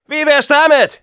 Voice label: taunts, de; Scout